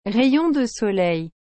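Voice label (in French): "rayon de soleil", French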